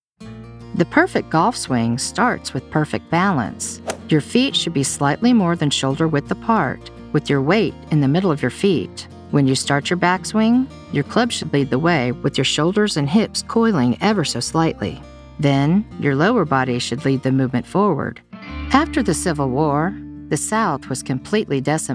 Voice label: Narration